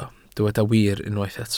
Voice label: "wir" Welsh

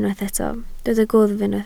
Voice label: "gwddf", Welsh

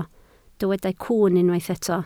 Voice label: "cwwn" Welsh

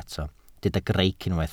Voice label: "greic", Welsh

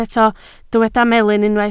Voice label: "melyn" Welsh

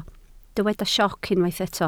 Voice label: "sioc" Welsh

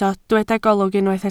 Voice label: "golwg", Welsh

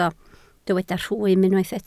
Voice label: "rhwym" Welsh

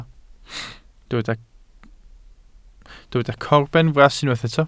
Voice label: "corbenfras" Welsh

